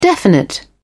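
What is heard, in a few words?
"definite", British English, dictionary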